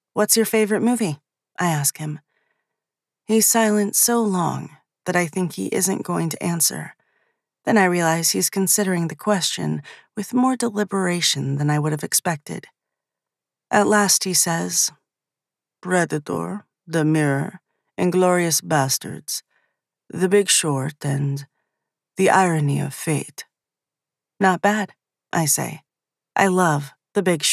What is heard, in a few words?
Dialogue Russian Accent